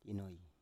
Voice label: "inoi", Biak